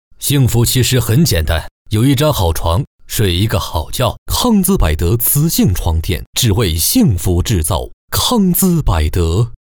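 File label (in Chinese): 国语 男声 广告 大气浑厚磁性 沉稳 积极向上; 康姿百德床垫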